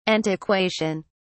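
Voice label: "antiquation", British English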